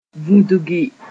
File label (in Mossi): "vũdgi", moore; scratch